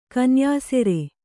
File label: "ಕೊನೆಯುಸಿರು", Kannada